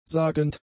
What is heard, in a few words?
"sagend", german; adjectives